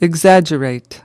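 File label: "exaggerate", English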